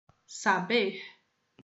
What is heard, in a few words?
"saber", Portuguese